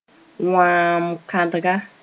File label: "wãam-kãdga", Mooré; baboon